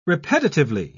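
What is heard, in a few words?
"repetitively", British English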